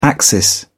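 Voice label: "axis", British English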